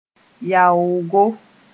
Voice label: "yaoogo", Mooré